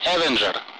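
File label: Speech, Russian; Allied Flight; AirDefence; Avenger; DCS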